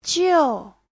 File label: "jiu4", chinese